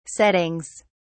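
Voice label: "settings", British English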